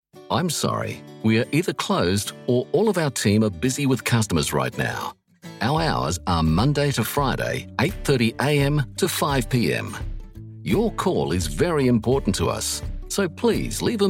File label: Voicemail Greeting